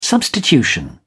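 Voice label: "substitution", British English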